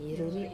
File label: "ruri", wamesa; to light